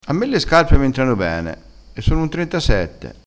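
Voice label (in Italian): "fiocca", vocabolario dialettale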